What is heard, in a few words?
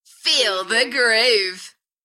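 UK female, Enthusiastic, DJ vocals; Feel the groove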